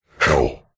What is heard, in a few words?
horror demonic voice male deep says hell; zapsplat